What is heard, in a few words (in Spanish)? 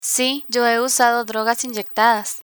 "he usado drogas inyectadas", Spanish; social history